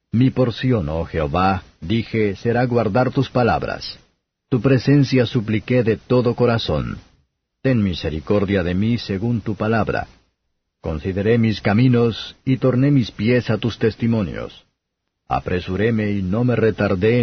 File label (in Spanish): salmos spanish; 119; jet